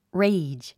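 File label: "rage", English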